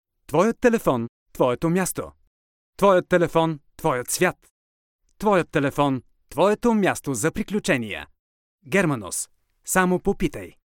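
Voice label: Bulgarian Commercial; GoLocalise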